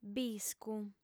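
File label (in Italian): "biscu", lingua sarda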